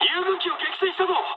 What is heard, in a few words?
player killed ally mood high, speech jp; War Thunder